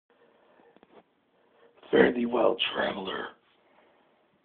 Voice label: orc, voice auditions